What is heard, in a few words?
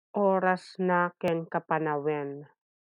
Ilocano